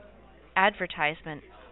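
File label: "advertisement", USA, female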